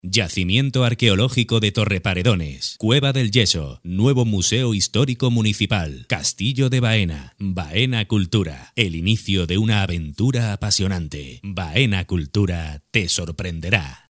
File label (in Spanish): locutor spain